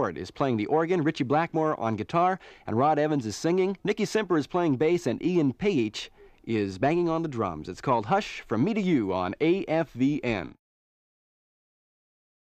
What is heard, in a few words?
Radio Spot: Gi Reemployment Rights And Song Introduction; Mono